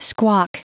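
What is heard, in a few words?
"squawk", pronunciation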